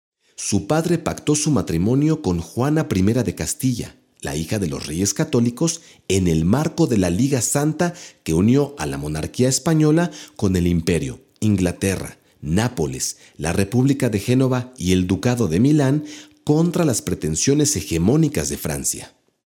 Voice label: mexican voiceover locutor mexicano